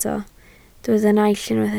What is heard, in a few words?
"naill", Welsh